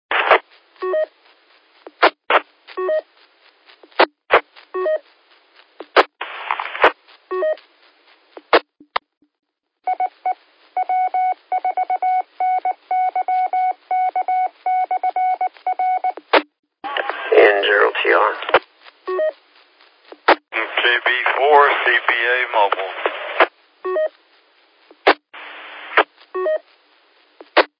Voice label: qso rec repeater logic